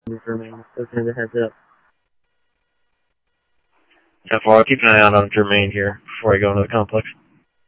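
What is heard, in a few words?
Gilbert 6, scanner audio; Mesa Simulcast; Glbrt6C2C; MESA OPEN ALL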